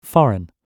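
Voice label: "foreign", British English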